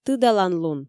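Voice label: "тыдаланлун", Komi, female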